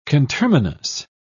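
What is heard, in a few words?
"conterminous", British English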